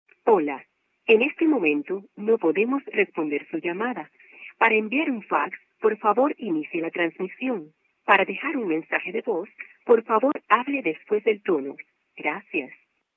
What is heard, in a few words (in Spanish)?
mensajes telefonicos